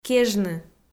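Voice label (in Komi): "кежны", Komi, female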